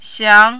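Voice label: "xiang2", mandarin, rising tone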